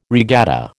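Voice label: "regatta", American English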